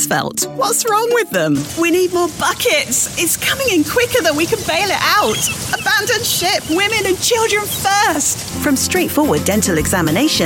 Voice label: commercial, Reassuring Kind English Female VoiceOver